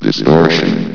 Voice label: "distortion", vox; valve